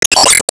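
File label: Voice Emotion Pain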